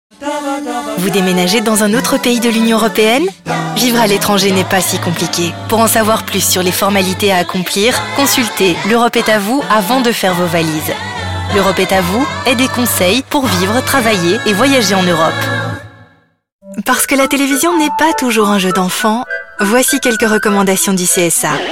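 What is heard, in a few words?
FR F commercial, French, female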